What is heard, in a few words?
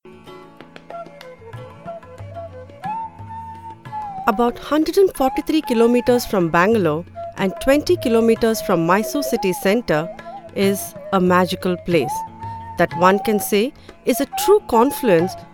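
documentary English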